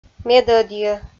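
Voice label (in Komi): "медӧдйӧ", Komi, female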